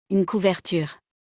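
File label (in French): "Une couverture", French; vocabulaire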